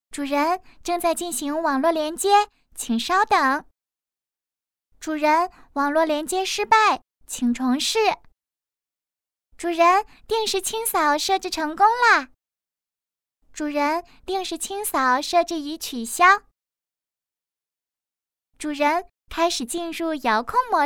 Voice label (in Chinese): 女童 智能语音播报 可爱